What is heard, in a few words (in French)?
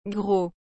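"gros", French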